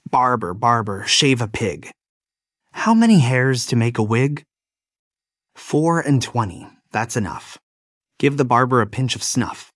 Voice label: male US; Barber Barber Shave a Pig